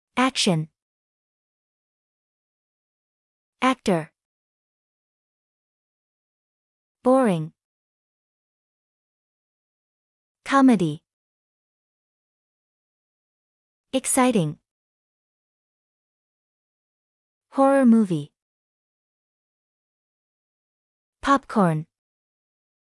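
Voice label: American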